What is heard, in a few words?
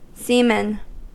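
"seaman" English, US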